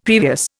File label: "previous", Indian; Dictionary